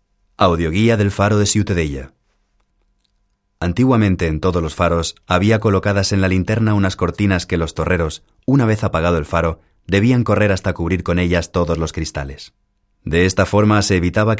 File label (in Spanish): audioguia; ciutadella